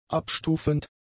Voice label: "abstufend", german; adjectives

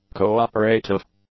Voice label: "cooperative", English; adjectives

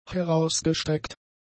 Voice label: "herausgestreckt", German; adjectives

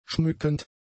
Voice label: "schmückend", German; adjectives

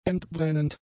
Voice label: "entbrennend", German; adjectives

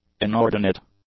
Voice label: "inordinate", English; adjectives

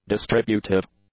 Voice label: "distributive", English; adjectives

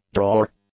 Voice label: "drawer", English; nouns

